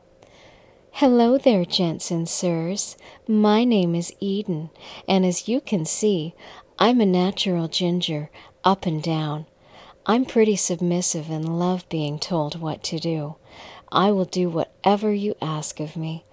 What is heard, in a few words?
voice greeting